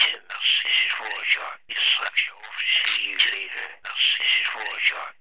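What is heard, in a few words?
vocals, Jungle; E-Lab; XTC Files of Jungle; VOX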